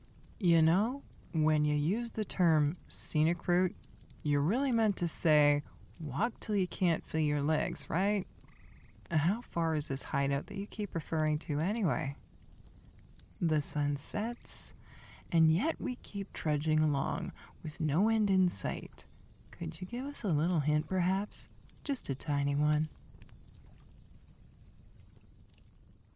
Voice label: Video game character sarcastic strong grumpy; voice demos